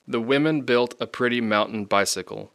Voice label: "The women built a pretty mountain bicycle", English; Speech Pro